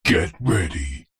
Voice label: radio production voice male processed deep says get ready